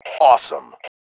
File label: AWESOME, MALE